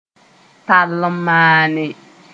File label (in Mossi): "tall n maane", moore